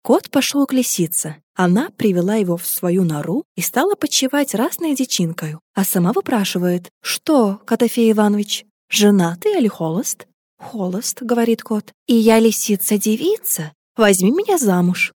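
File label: Russian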